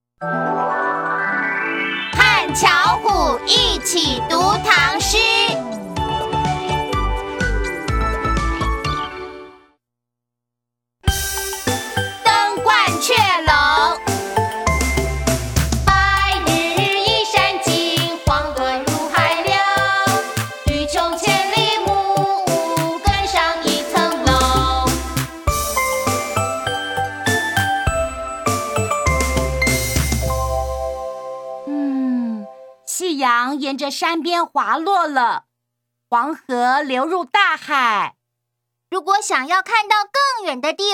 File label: poetry